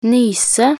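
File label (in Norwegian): "nyse", Norwegian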